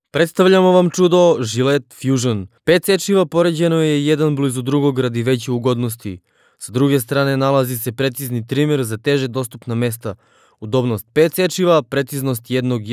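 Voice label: Serbian male commercial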